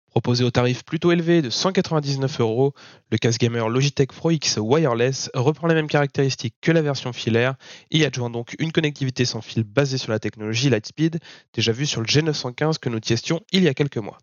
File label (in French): logitech pro x wireless soundtest voix grave fort